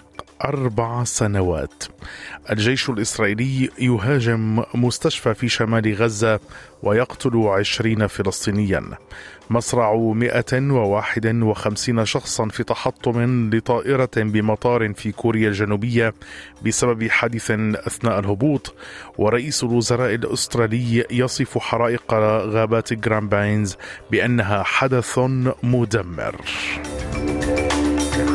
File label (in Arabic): نشرة أخبار الصباح, SBS Arabic